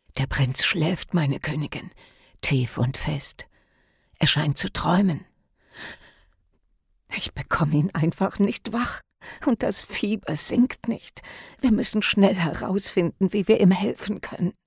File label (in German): Hoerspiel Rolle Heilerin; Grayscull